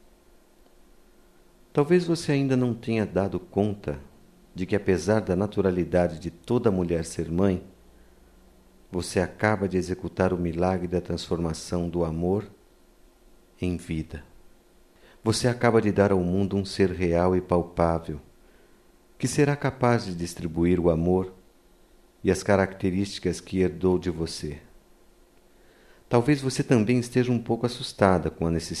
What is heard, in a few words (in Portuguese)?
PARA MAE 1 ANO - M, Só Voz